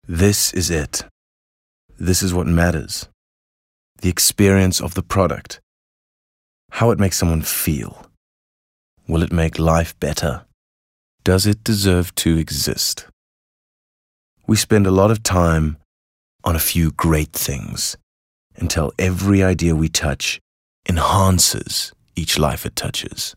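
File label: English intimate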